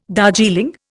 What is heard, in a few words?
"Darjeeling", Indian